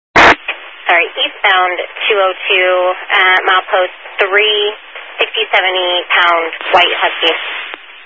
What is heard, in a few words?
Highway Patrol, Phoenix Metro East Area, scanner